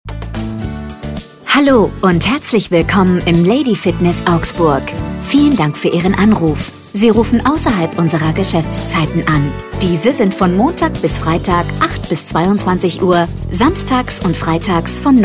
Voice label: Ansage; Telefonansagen Fitnessstudio – Studio – GYM – Sportpark

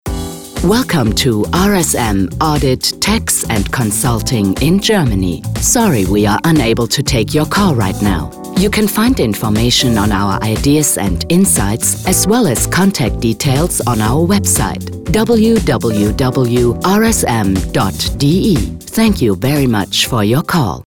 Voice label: Anrufbeantworter US; Telefonansage; Steuerberatung – Steuerberater; 1a-telefonansagen

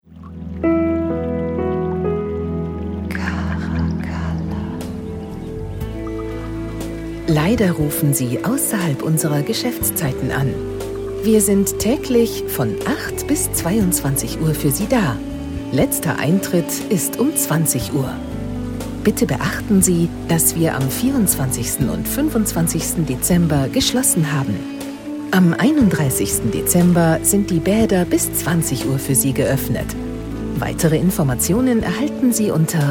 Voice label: Weihnachten, Telefonansagen; Caracalla

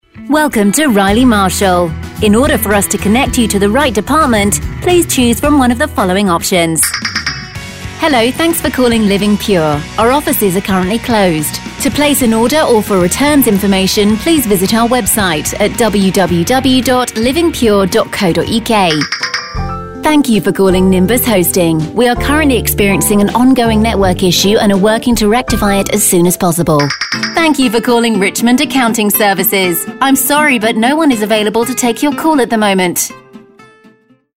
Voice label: telefonansagen sprecher uk; Native Speaker

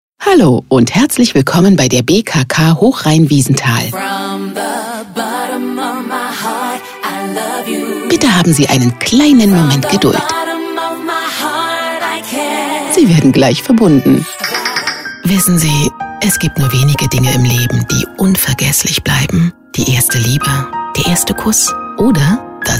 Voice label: telefonansagen sprecher; Hollywood Sprecher am Telefon; julia roberts

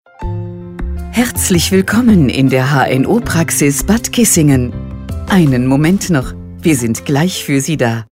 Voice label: Ansage stereo; Telefonansage Arzt – Praxis – Hausarzt – Augenarzt – Hautarzt – HNO – Arztpraxis – Ärzte

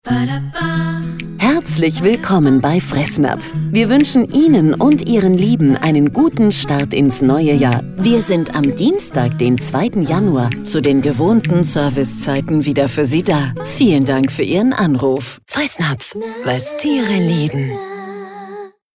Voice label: Neujahr Ansage; Fressnapf

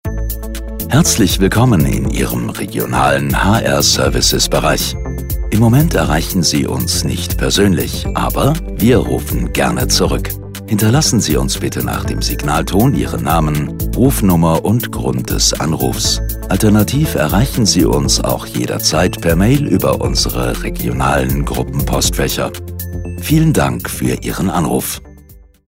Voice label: Service Ansage; DB Schenker

